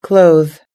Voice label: "clothe", us-english